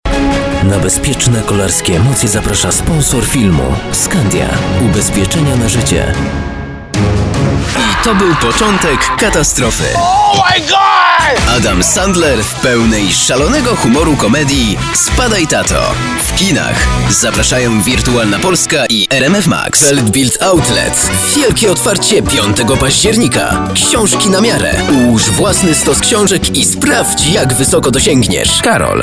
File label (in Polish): REKLAMA